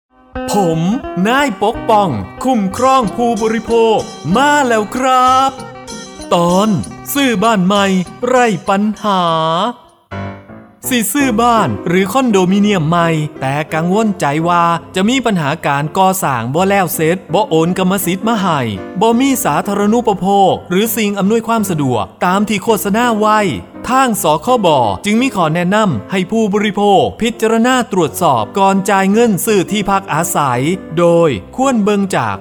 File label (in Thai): สปอตวิทยุ ภาคตะวันออกเฉียงเหนือ; สื่อประชาสัมพันธ์; สคบ.; สำนักงานคณะกรรมการคุ้มครองผู้บริโภค